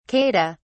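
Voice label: "kata", British English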